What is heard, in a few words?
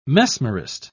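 "mesmerist", British English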